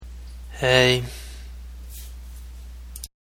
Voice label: Sad Hey